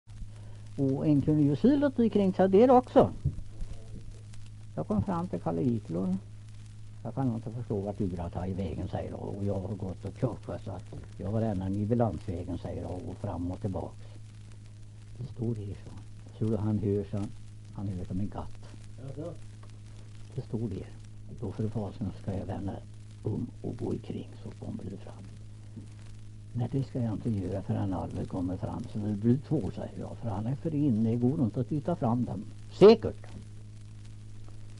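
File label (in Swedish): Kräklinge Närke, dialekter; Folkminnen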